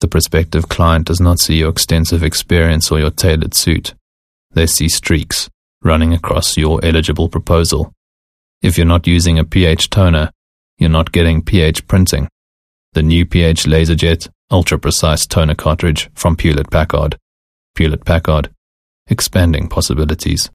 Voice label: English Dry Sell